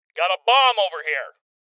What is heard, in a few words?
Voices Bomb Spotted Male; rebel cops 2019